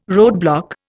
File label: "roadblock", Indian